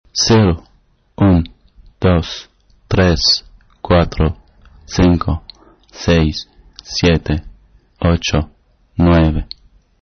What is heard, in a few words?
count spanish